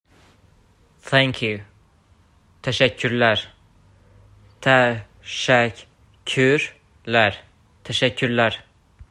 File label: Thank you Təşəkkürlər, azerbaijani